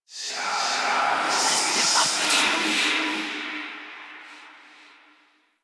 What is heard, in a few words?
Whisper; Enemies; Stalker Acolyte